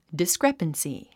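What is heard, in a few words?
"discrepancy", English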